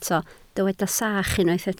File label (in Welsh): "sach", Welsh